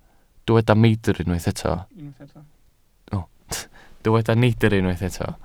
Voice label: "neidr", Welsh

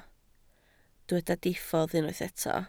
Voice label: "diffodd", Welsh

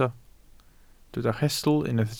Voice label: "rhestl", Welsh

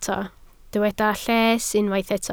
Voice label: "lles", Welsh

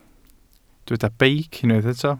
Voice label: "beic", Welsh

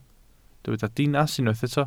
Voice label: "dinas", welsh

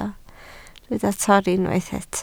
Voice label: "torri", Welsh

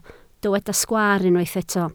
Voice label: "sgwaar", Welsh

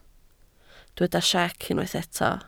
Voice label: "siec", Welsh

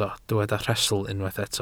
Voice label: "rhestl", Welsh

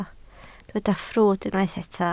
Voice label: "ffrwd", Welsh

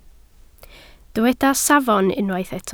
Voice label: "safon", Welsh

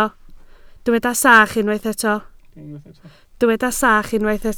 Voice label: "sach", Welsh

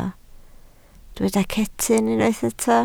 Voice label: "cetyn", Welsh

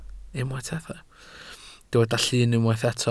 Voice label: "llun", Welsh

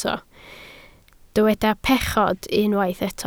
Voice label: "pechod", Welsh

